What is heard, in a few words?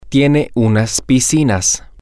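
you have some pools, Spanish, male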